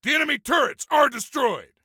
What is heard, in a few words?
Enemy Turrets, Soldier Male